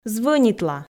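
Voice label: "звӧнитла", Komi, female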